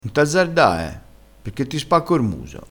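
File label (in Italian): "azzardassi", vocabolario dialettale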